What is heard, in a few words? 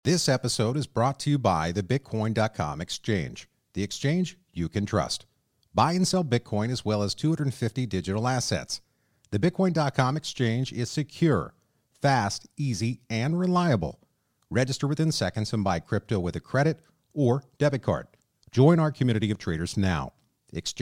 narrator; Bitcoin com Exchange